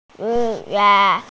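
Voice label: "shu4ye4", Chinese, female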